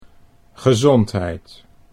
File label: "gezondheid", Dutch